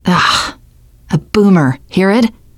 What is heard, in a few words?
heard boomer, teen girl; player; survivor; voice